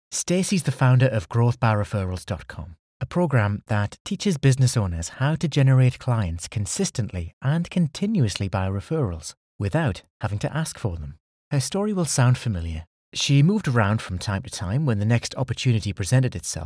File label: narration podcast